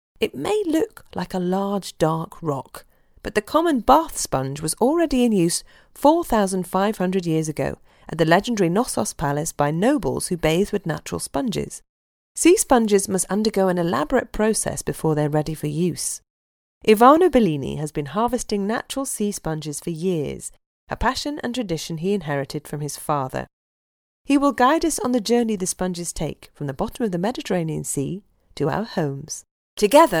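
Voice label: narration e-learning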